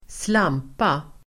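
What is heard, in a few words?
"slampa", Swedish; Uttal: [²sl'am:pa]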